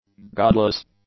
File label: "godless", english; adjectives